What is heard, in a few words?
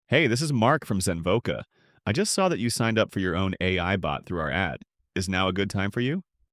male english